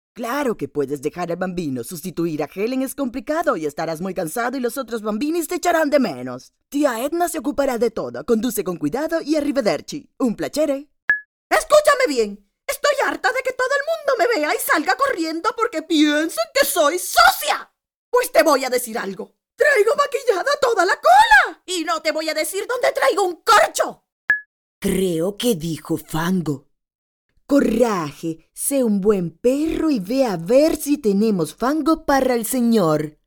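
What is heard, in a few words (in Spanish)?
Latin American Spanish, characters, neutral Spanish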